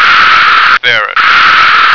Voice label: "ferret", American

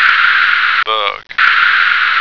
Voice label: "bug", American

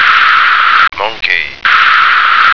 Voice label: "monkey", American

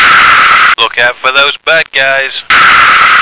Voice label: "lookout", American

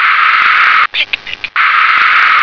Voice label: "picnic", English

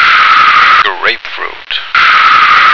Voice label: "grapefruit", American

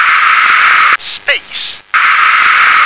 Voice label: "space", English

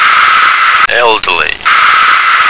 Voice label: "elderly", American

